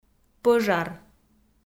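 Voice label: "пӧжар", Komi, female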